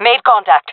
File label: taking fire, fem; player vo; Counter Strike Model Pack; CS:GO